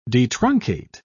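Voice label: "detruncate", British English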